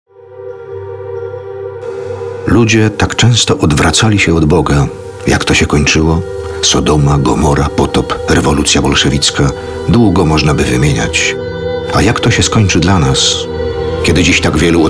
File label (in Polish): spot radiowy; Rozaniec Do Granic Czasu